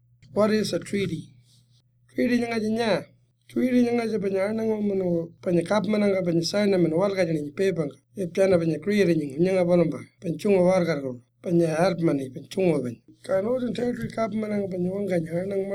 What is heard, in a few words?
Pitjantjatjare; What is a Treaty